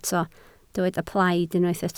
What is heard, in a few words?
"plaid", Welsh